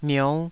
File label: "miou5", Taiwanese